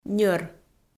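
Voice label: "ньӧр", Komi, female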